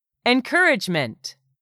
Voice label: "ENCOURAGEMENT", english; 3000 words

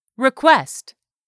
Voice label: "REQUEST", english; 3000 words

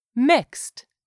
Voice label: "MIXED", english; 3000 words

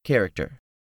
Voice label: "character", English; 3000 words

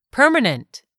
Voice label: "permanent", english; 3000 words

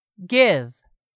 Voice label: "give", English; 3000 words

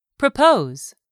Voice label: "propose", english; 3000 words

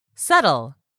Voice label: "SETTLE", english; 3000 words

